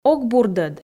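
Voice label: "ог бурдӧд", Komi, female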